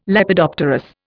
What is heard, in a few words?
"Lepidopterous", Indian